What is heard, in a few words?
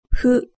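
"fu", Japanese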